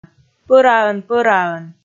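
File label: "пӧраӧн-пӧраӧн", Komi, female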